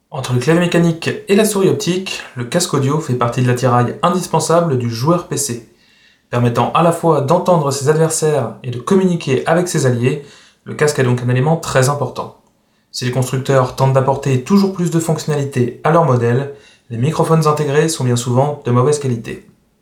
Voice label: logitech mx brio soundtest